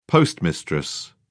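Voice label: "postmistress", British English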